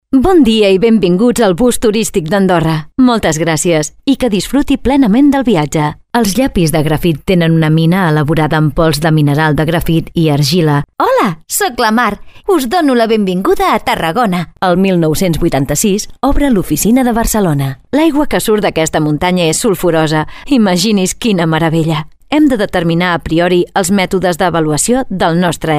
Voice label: catalan corporate videos

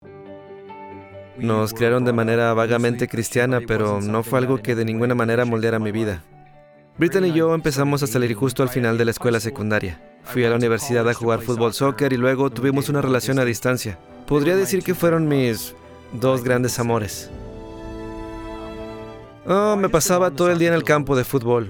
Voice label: spanish latin american documentaries

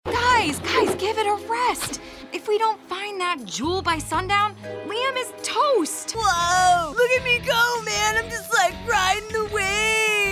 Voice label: english american animation

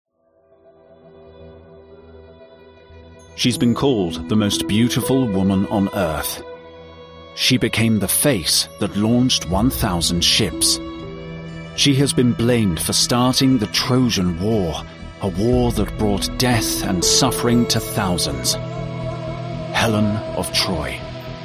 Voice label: english british documentaries; UK voice actor

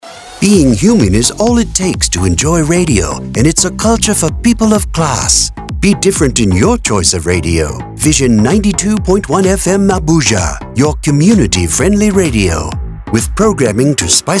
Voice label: English American radio imaging

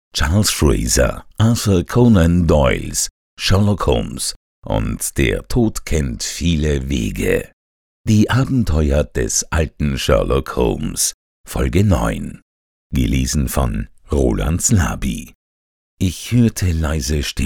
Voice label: german audiobooks

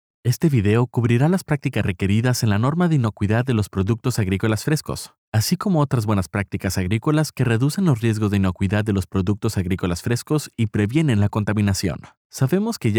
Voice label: spanish latin american corporate videos

